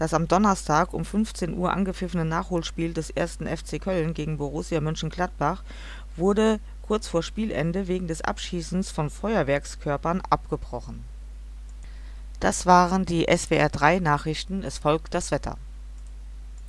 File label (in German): Ansage Krimitour